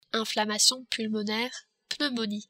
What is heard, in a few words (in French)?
"pneumonie", french